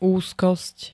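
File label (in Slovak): "úzkosť", Slovak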